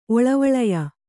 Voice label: "ಒಳವಳಯ", Kannada

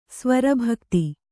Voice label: "ಸ್ವರಭಕ್ತಿ", Kannada

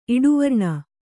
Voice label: "ಇಡುವರ್ಣ", Kannada

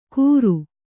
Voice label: "ಗುರು", Kannada

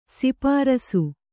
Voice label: "ಸ್ಪರ್ಶ", Kannada

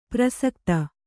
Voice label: "ಪ್ರಸಕ್ತಿ", Kannada; prasakta; noun

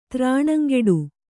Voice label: "ತ್ರಾಣಂಗೆಡು", Kannada

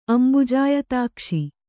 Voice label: "ಅಂಬುಜಾಯತಾಕ್ಷ", Kannada

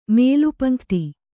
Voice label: "ಮೇಲುಪಂಕ್ತಿ", kannada

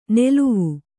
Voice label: "ನೆಲುವು", Kannada

